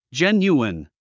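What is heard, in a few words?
"genuine", English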